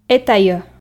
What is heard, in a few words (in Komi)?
"этайӧ", f